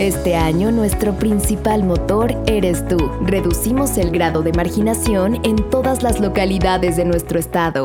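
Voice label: corporativo, Mexican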